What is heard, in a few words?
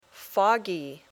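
"foggy", English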